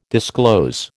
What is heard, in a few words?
"disclose", American